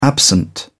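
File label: "absent", English, British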